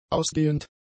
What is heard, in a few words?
"ausgehend", German; adjectives